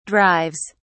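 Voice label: "drives", British English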